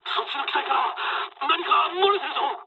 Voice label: tank damaged mood med, speech jp; War Thunder